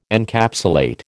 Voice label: "Encapsulate", American